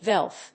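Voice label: "VLF", English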